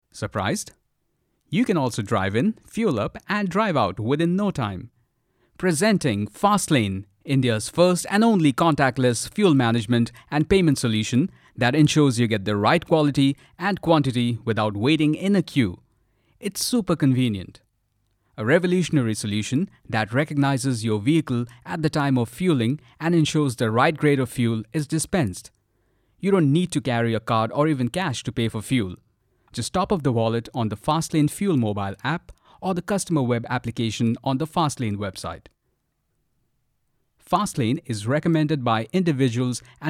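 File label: explainer english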